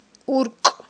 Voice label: "уркI", Aghul